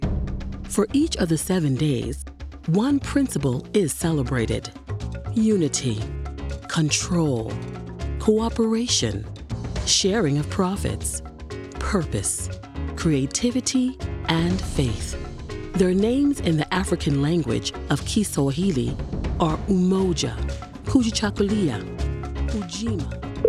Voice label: kwanzaa, narration